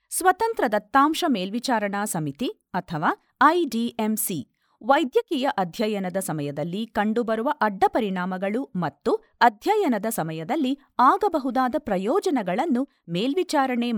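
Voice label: Kannada, female, voice over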